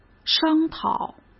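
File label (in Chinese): "商讨", Mandarin Chinese; hsk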